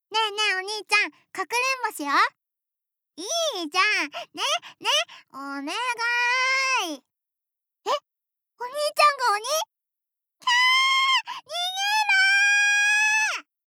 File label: Little girl